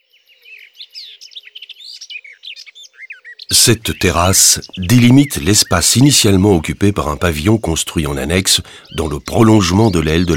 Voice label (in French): audioguide fr adulte; jardin; modave